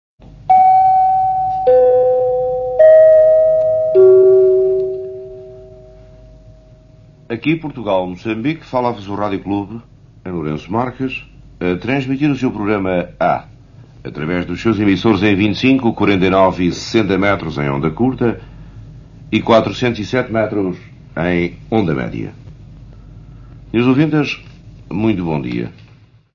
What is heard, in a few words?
Station ID; '60s